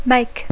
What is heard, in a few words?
"make", English